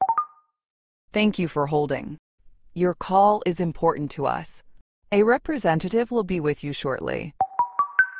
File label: hold, ivr voice generator